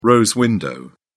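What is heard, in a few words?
"rose-window", British English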